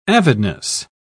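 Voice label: "avidness", British English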